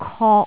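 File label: "kok3", Cantonese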